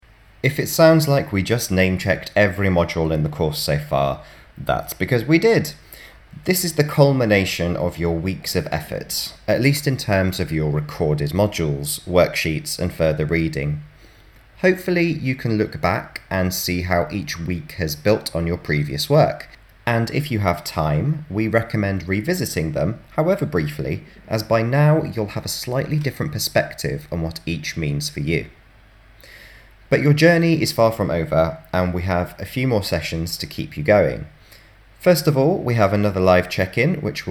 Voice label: Narration; Radius